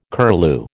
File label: "curlew", American